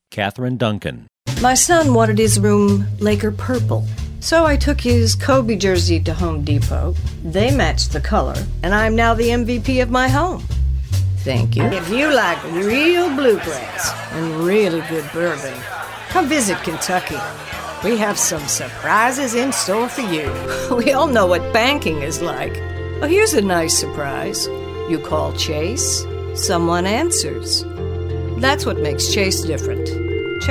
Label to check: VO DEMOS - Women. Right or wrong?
Right